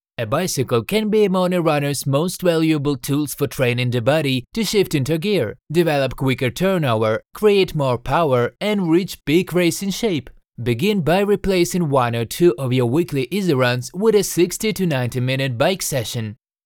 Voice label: Cycling training in English

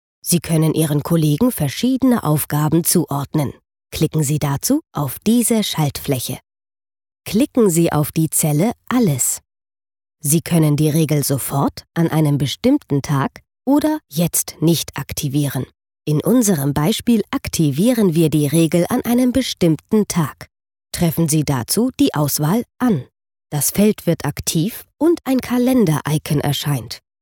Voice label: E learning; Oberbank

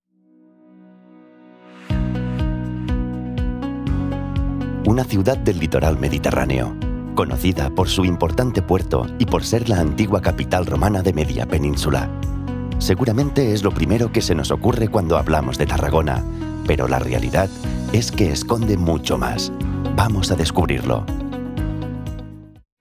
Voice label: spanish Corporate

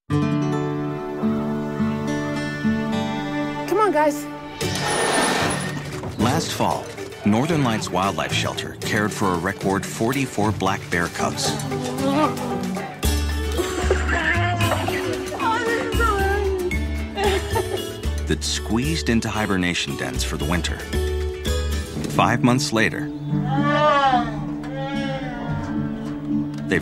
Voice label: In Show Narration

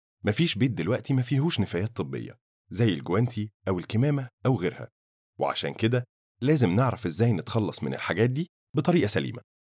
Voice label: Egyptian Dialect Narration

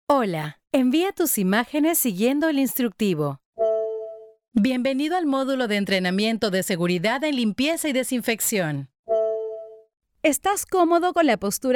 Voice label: Female Spanish LatAm E learning

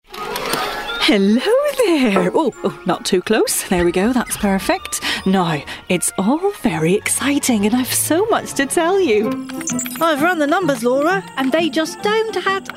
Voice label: Animation Character

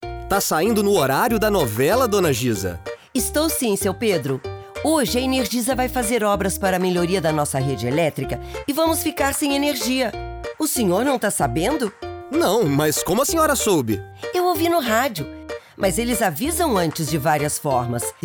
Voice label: Spot; Energisa

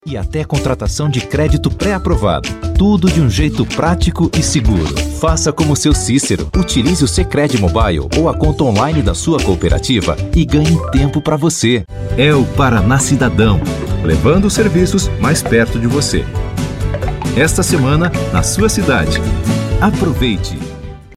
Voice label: Smooth

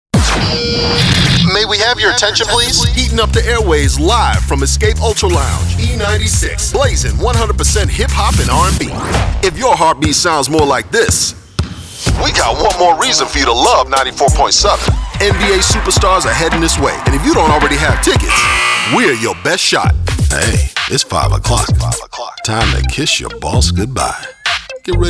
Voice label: Radio Imaging

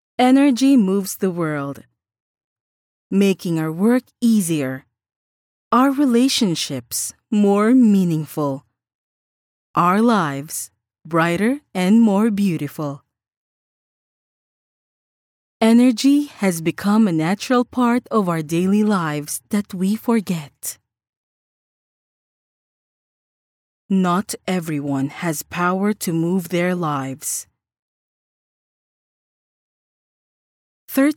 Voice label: English VOICE SAMPLE

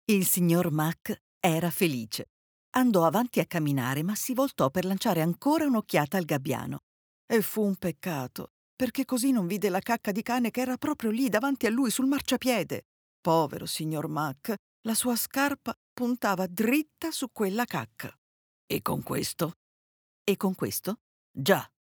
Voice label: Audiobook for children

